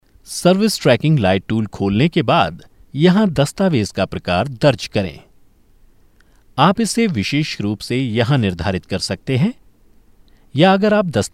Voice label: hindi voiceover locutor; locutor hindú de la India